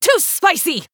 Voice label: Female Human Attack